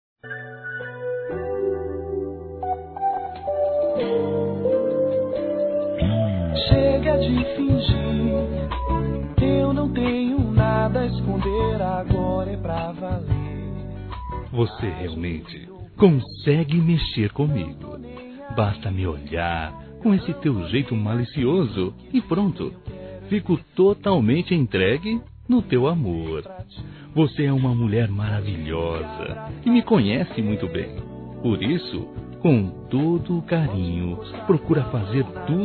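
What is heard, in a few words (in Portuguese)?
ROMANTICA (M), Telemensagens, Românticas masculino; Telemensagens 2017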